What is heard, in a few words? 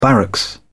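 "barracks", British English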